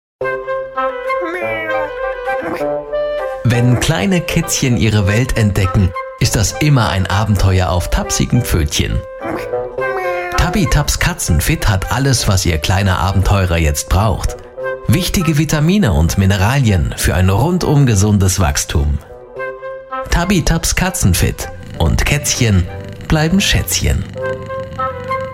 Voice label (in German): sprecher werbung; tubbytubbs